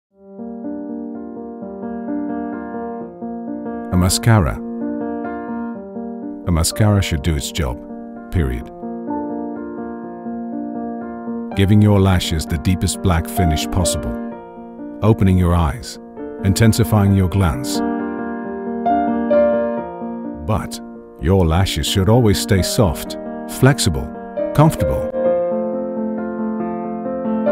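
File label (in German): British English Werbung Sanft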